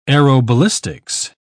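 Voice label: "aeroballistics", British English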